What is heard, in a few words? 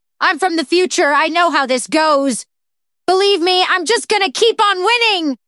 happy; nadia; fertig classic games